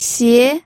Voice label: "xie2", pinyin